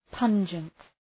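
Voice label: "pungent", English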